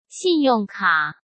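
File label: "信用卡", Mandarin Chinese; hsk; chinese-flashcards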